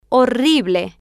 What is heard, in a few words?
"horrible", spanish